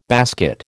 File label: "basket", American